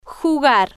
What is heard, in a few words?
"jugar", spanish